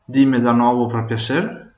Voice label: say again, venetian